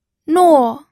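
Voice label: "nuo", fourth tone, pinyin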